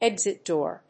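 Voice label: "exit door", English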